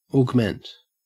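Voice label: "augment", Australian English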